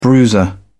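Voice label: "bruiser", British English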